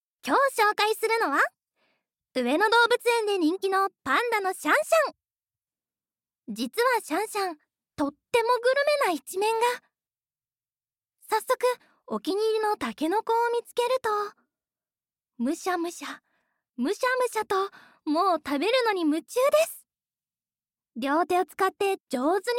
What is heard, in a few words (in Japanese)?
ナレーション